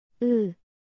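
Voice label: "ㅡ", Korean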